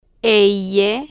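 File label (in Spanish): "ll", spanish, female, slow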